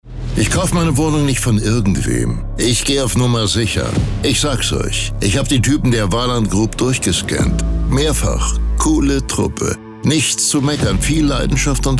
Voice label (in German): radiospot; Tristan und Isolde